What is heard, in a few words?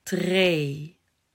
"Tree", Dutch